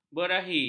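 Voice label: "berahi", Malay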